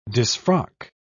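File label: "disfrock", British English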